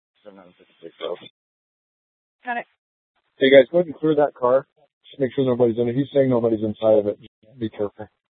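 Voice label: Police Department Dispatch, scanner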